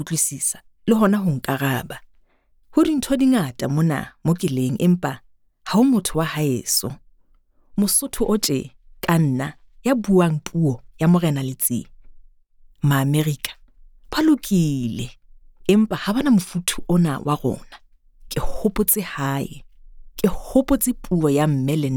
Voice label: Sesotho Poem

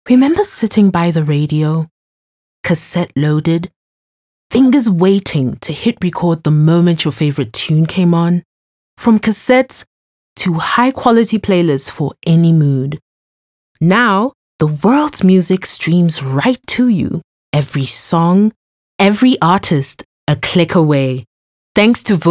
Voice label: 30sec ad VO; Vodacom

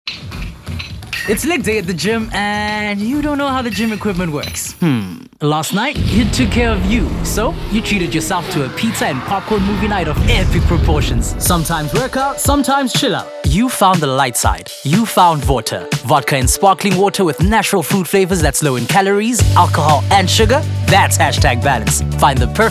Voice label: Spotify ad

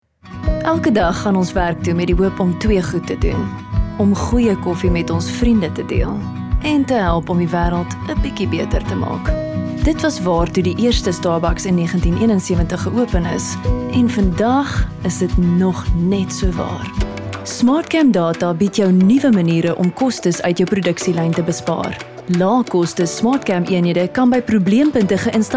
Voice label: CORP AFR

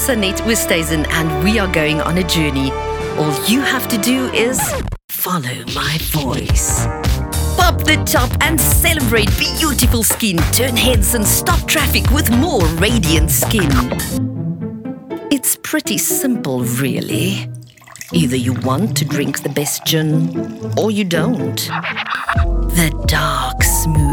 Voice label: English Mash up

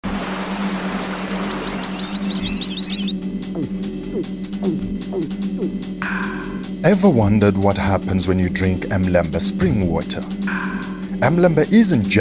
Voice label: English advert voice over; Emlembe

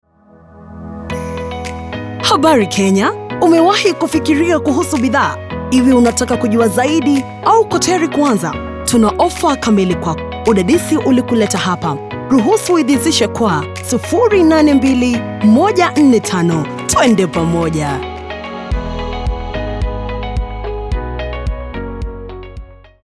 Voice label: KENYAN FEMALE SWAHILI

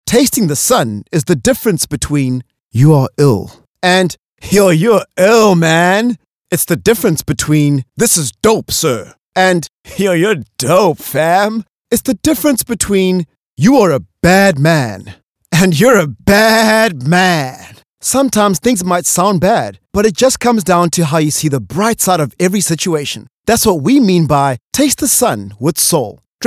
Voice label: Spotify VO

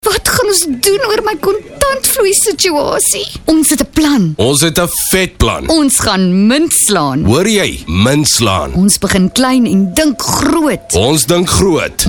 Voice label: Afrikaans Over the top medium sell; South Africa

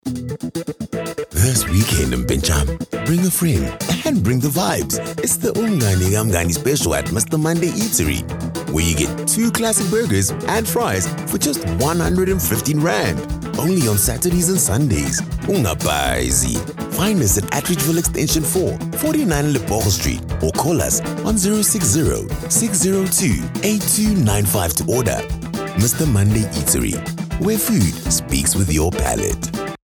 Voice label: Conversational Voice Over

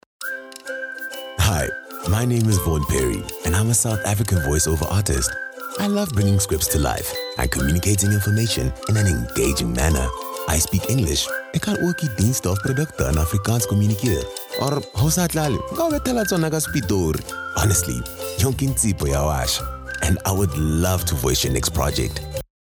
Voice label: Introductory Voice Over

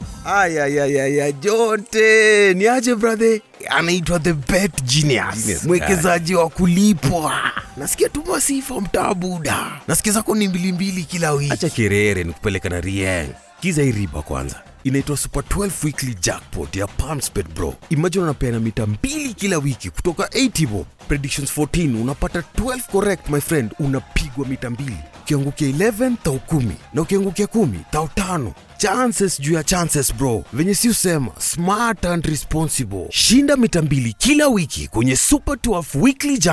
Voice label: SWAHILI SHENG; PALMSBET SUPER 12 WEEKLY JACKPOT

